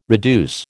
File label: "reduce", American